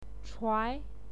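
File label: "chuai", Mandarin Chinese, second tone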